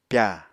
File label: "pyà", Apatani